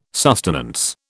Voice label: "sustenance", British